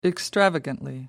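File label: "extravagantly", British English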